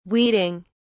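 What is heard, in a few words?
"weeding", British English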